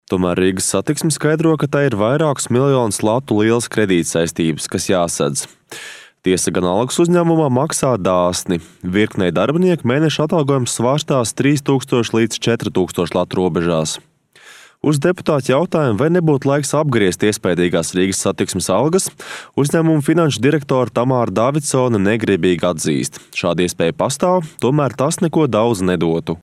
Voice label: latvian male